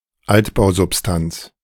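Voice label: German "Altbausubstanz"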